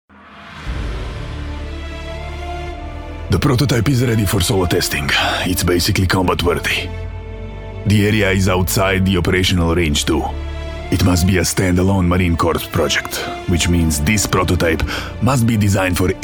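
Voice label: Croatian, male, English Games character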